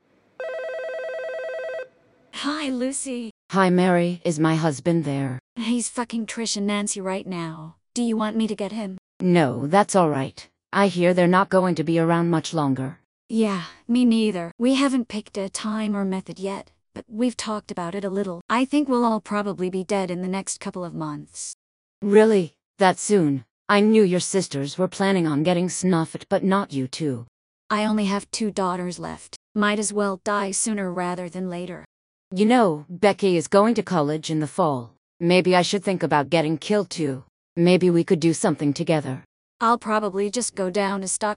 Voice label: phone call